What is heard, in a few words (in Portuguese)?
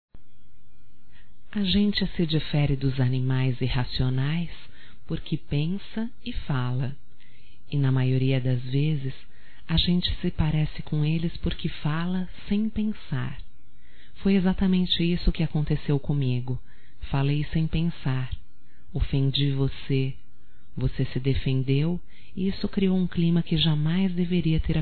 DESCULPAS ROMÂNTICA - F; Românticas